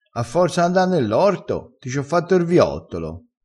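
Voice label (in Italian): "viottolo", dialetto crespinese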